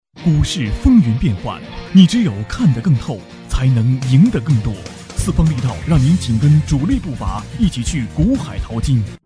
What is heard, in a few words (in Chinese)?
贴片 财经机构